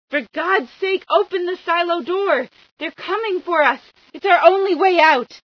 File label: silo, female